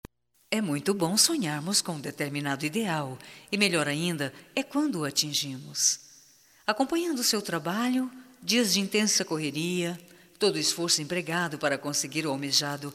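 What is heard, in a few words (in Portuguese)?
VITORIA - female, Telemensagens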